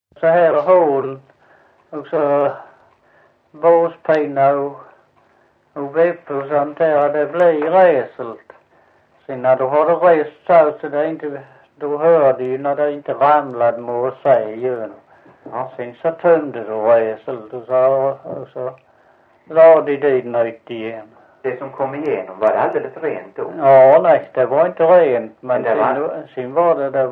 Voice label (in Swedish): Visseltofta SK, dialekter